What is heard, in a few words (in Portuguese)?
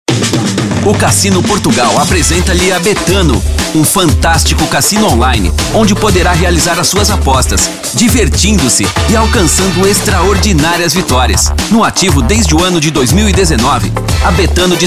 Portuguese Brazilian; Betano